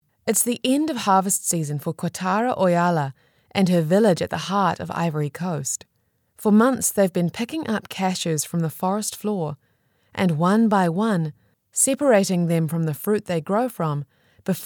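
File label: English New Zealand, female, voice over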